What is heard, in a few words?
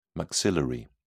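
"maxillary", British English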